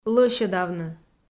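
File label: "лӧсьӧдавны", Komi, female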